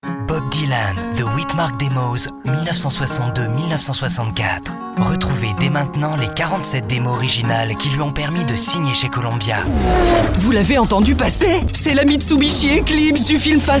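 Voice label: French, commercial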